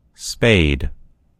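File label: "spade", American English; gcompris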